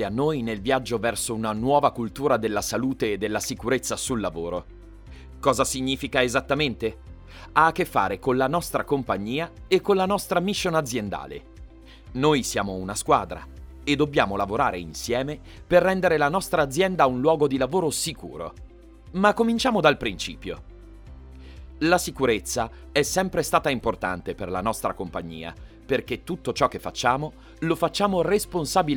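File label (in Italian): Corporate, Native Italian Voice Over Artist; Home Studio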